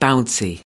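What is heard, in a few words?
"bouncy", US English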